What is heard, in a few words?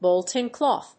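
"Bolting cloth", English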